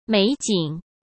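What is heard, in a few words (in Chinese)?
"美景", Mandarin; chinese flashcards; hsk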